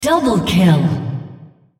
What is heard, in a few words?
female Double Kill